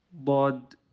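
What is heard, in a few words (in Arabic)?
"ض", Arabic; quiz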